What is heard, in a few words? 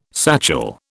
"satchel", British